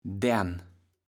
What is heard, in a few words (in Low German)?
"Därn", kaevels platt